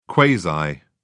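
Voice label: "quasi", British English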